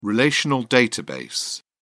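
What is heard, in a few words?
"relational database", British English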